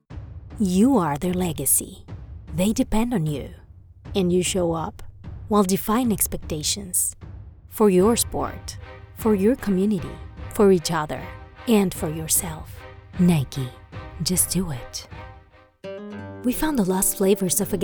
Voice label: International English Commercial